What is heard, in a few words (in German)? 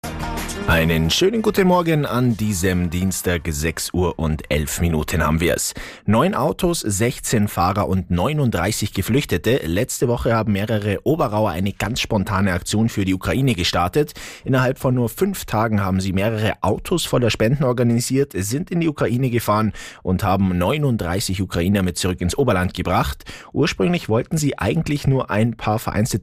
Aircheck Radio; Radio Oberland